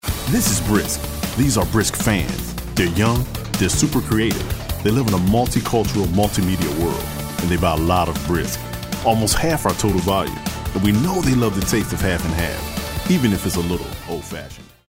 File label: upbeat